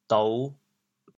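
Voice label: "dou5", Teochew, chaoyang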